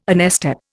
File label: "onestep", Indian